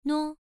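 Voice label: "nuo", tone 1, pronunciation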